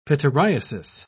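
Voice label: "pityriasis", British English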